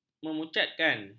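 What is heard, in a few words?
"memucatkan", Malay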